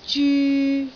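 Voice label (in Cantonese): "zyu3", Cantonese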